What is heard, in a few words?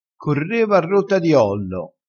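"a rotta", Italian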